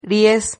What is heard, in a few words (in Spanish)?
"ries", Silabas